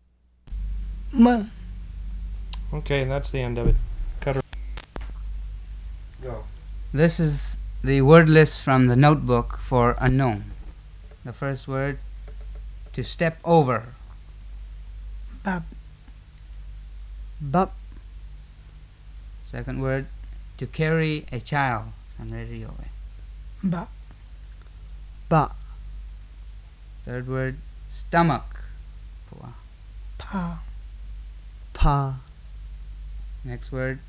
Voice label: Kachin

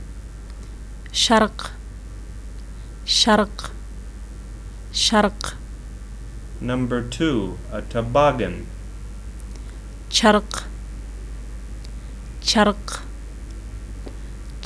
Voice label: Mongolian

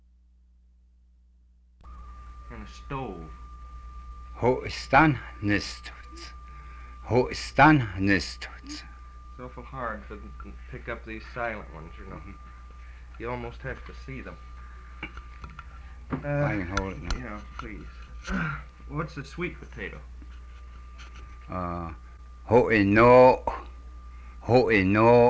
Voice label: Cheyenne

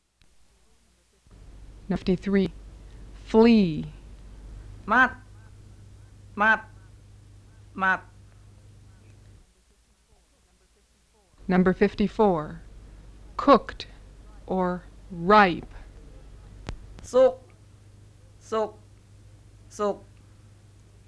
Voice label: THAI SUPANBURI Tones of Tai Dialects

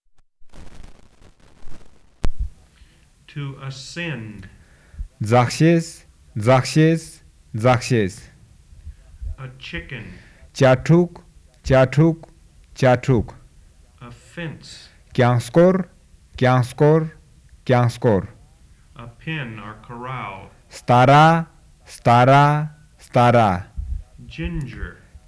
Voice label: Central Ladakhi

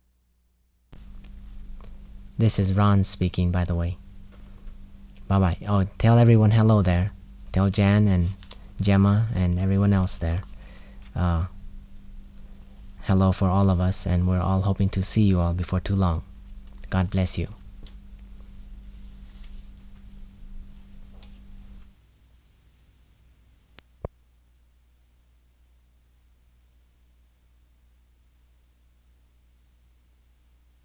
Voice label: LISU Labio Detentalization

